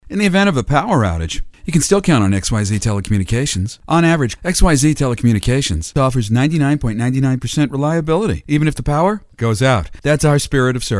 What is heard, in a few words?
TELECOMMUNICATIONS Young Natural, commercials